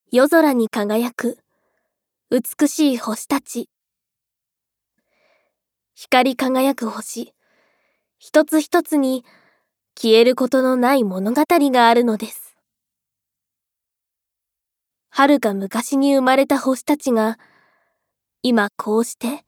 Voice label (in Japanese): ナレーション; テレビ東京系列 おはスタ内 ショートストーリー「ななし怪談」ガイド音声